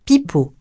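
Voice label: "pipeau", French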